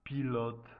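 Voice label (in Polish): "pilot", Polish; multimedia glossaries; transport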